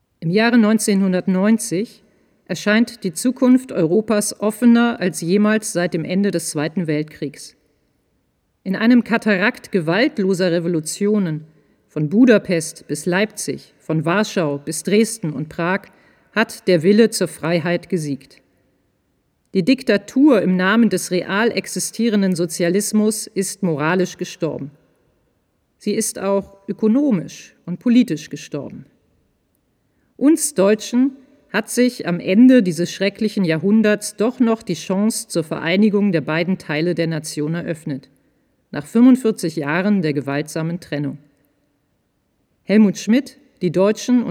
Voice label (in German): Sprecherin Seriös Gesetzt